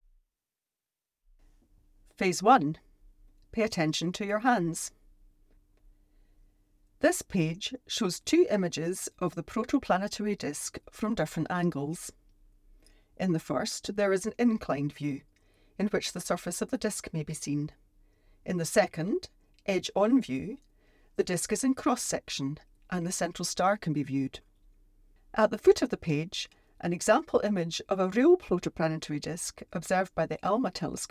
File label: Planetary Formation Stages (English); Phase 1